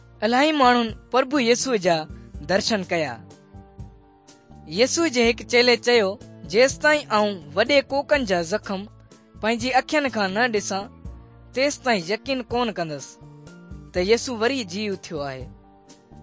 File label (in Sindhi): good news sindhi dukslinu, drama; sindhi pakistan nt drama